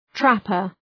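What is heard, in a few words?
"trapper", English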